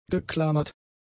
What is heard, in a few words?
"geklammert", German; adjectives